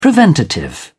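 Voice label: "preventative", British English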